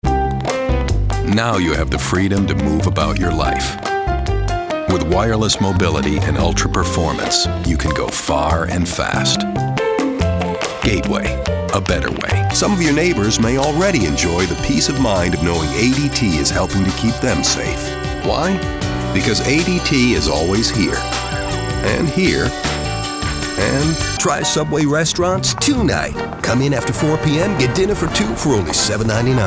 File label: English, english males